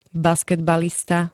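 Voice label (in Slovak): "basketbalista", Slovak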